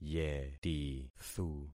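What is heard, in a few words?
"yetithu", concatenated syllables; Affixing experiment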